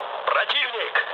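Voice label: Russian enemy detected mood low, speech; War Thunder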